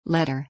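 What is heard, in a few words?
"letter", English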